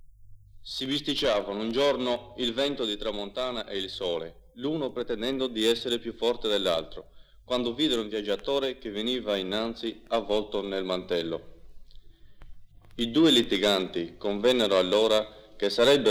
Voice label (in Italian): Favola Cagliari